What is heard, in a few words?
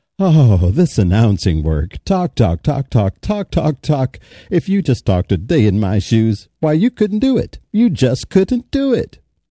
exhausted